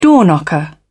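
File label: "door knocker", British English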